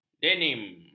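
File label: "denim", Malay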